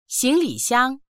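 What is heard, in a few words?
"xing2li3xiang1", Mandarin Chinese; 行李箱; スーツケース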